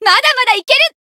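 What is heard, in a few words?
Voice growth Japanese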